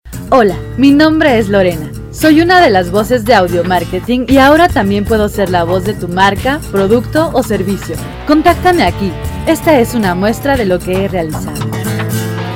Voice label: Locutora

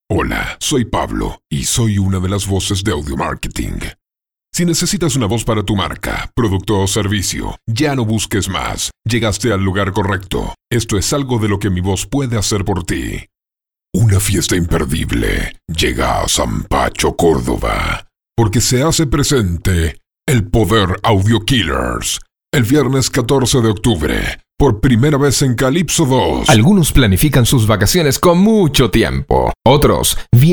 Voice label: Argentina; Locutores; Audiomarketing

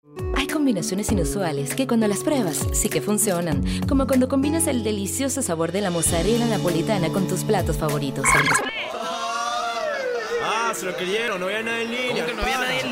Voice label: locutora venezuela

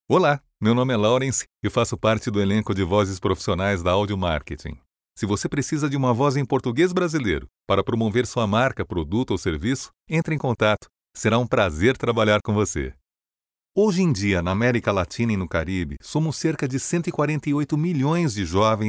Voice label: locutor brasileno

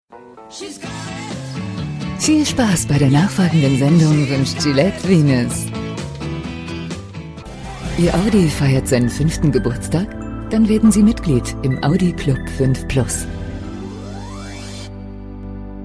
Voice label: Locutora alemana; alemania

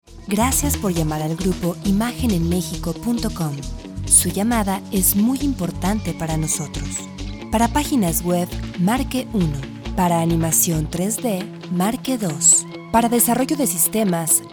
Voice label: On hold, mensajes y musica en espera, voz; Audio Holdings

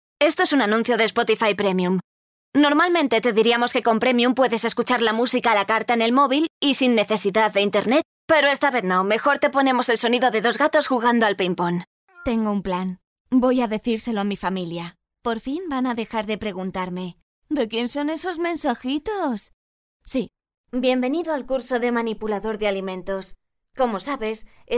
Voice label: Locutora espanola

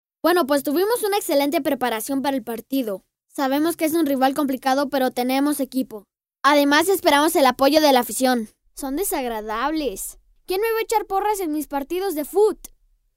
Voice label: locutor nino; infantiles

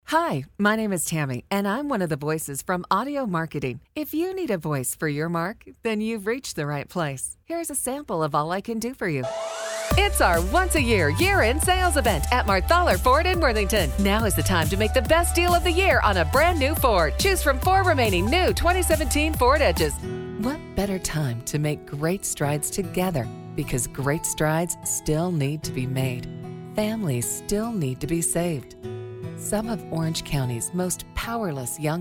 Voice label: Locutora americana; eeuu

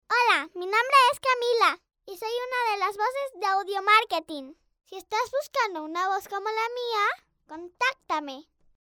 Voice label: locutora nina; infantiles

